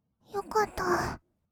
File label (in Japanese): "よかったー", kawaii voice; ASMR; 色々なワンショット; 1200 kawaii voice!!